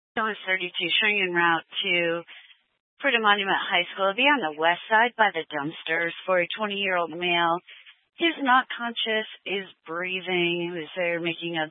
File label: Fire Dispatch, scanner